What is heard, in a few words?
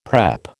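"prep", American English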